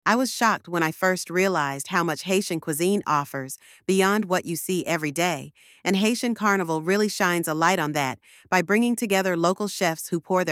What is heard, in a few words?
voiceover; Authentic Haitian Cuisine; Haitian Carnival; Highlight the diverse and delicious foods crafted by local Haitian chefs featured at the c; Kaylin AI